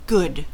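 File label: "good", American English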